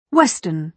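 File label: "western", British English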